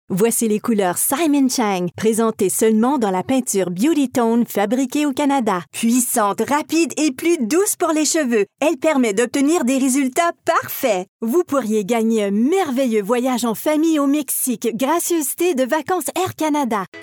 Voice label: commercial high energy